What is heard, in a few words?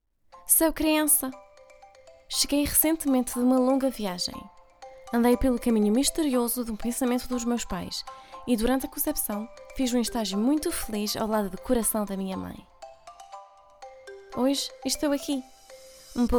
Portuguese